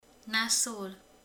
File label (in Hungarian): rossz, romani